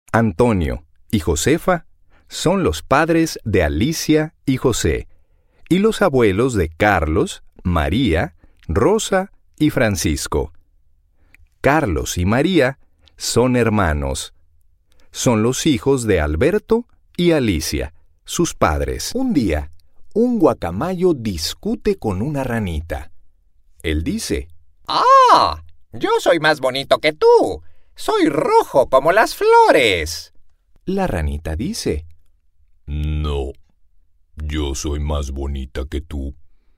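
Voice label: Male Voice Over Talents Spanish E Learning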